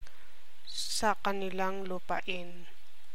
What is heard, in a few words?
tagalog